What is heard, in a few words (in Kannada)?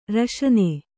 "ರಾಸನಾ", Kannada